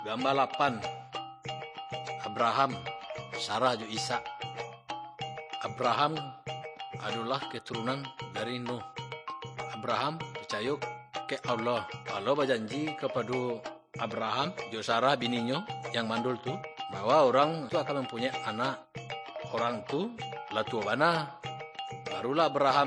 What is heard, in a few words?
good news minangkabau muko muko; malay; kaba ilo